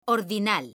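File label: "ordinal", Spanish, female; mekanta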